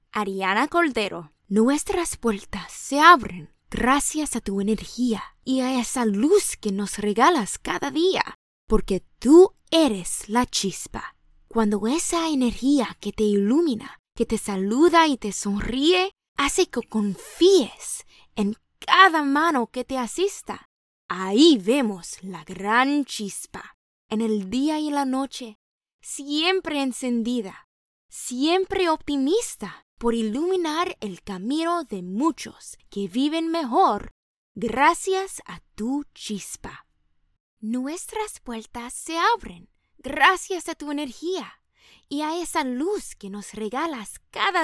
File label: SPANISH